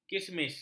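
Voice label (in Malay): "kismis", Malay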